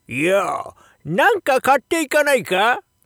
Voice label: shop oldman, voice